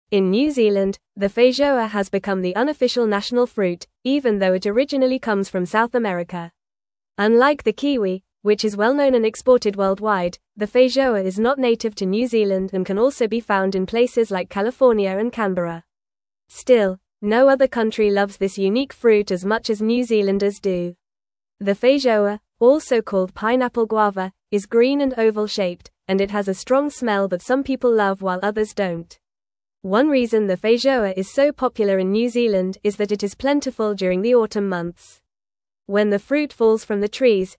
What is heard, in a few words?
English Upper Intermediate FAST Reading; English Newsroom; Feijoa New Zealands Beloved Autumn Fruit Tradition